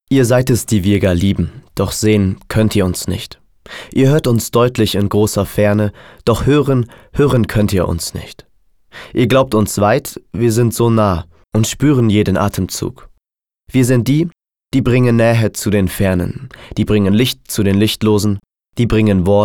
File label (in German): sachlich, maenner